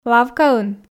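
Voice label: "лавкаын", Komi, female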